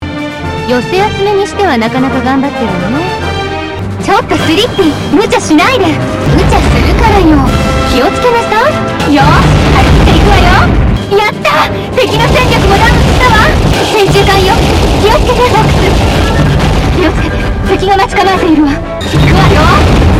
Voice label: Japanese; Assault; Fortuna